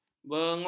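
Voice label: "bengut", Malay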